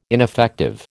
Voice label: "ineffective", American